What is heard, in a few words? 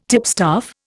"tipstaff", Indian